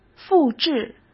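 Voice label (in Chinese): "复制", Mandarin Chinese; hsk; chinese flashcards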